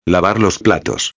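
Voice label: "lavar los platos", Spanish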